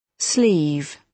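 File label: "sleeve", British English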